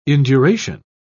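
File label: "induration", British English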